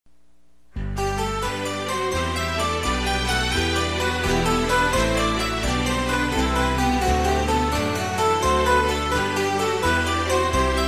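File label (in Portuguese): Feliz Natal e Ano Novo pra Cliente, male; Feliz Natal e Ano Novo de Empr. pra Cliente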